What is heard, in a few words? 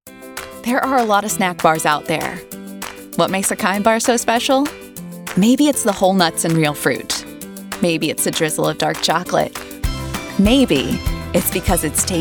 commercial